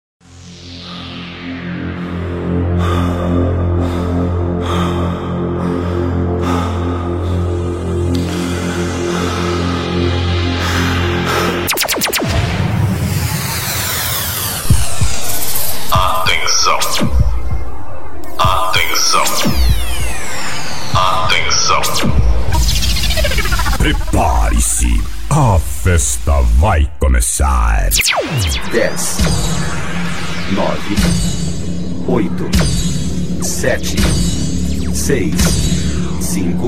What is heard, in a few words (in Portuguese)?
rodeio vinheta abertura; inauguracao da cancha do bixinho